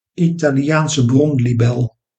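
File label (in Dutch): "Italiaanse bronlibel", Dutch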